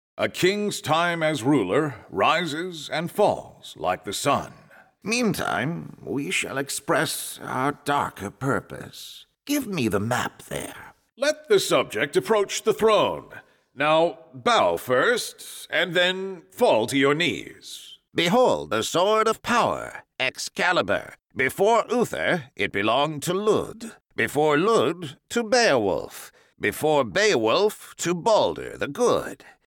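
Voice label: Game Narrator Kings Wizard, American Male Voice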